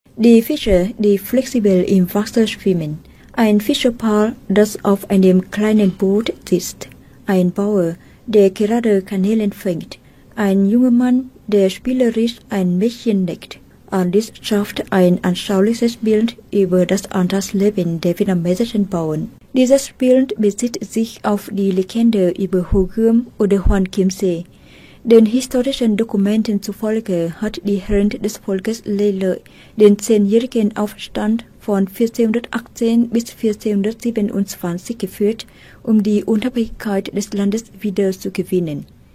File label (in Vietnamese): Tieng Duc; thu am da ngon ngu